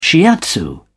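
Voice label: "shiatsu", British English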